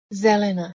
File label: "zelena", Bosnian